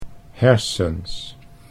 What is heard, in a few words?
"hersens", Dutch